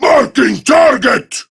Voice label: Enemy Spotted Prime Grineer Male; Tau; Battle Barks